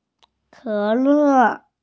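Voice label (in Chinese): "ke3le4", Mandarin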